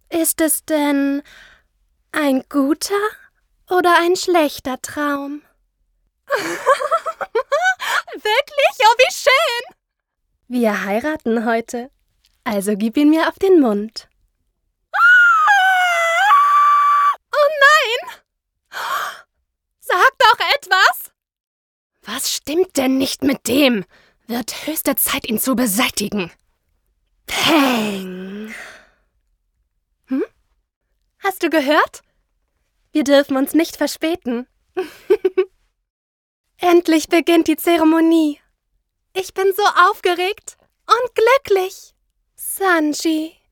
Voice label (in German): Anime One Piece lieblich schrill hinterlistig